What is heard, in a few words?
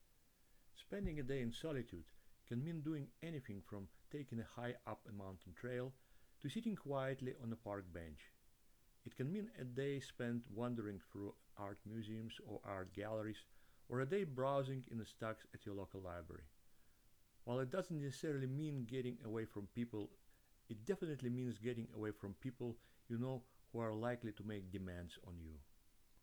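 Russian English male voice over; accent of russia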